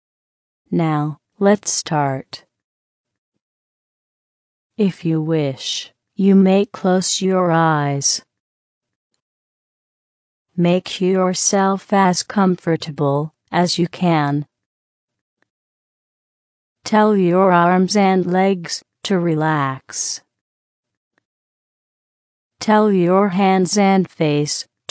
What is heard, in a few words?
Female Sample, hypnosis